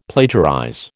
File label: "plagiarize", American